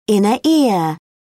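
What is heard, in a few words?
"inner ear", British English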